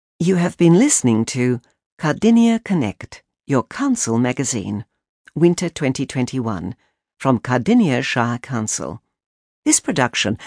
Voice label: closing announcement